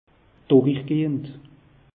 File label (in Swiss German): "durichgehend", alsace; meteo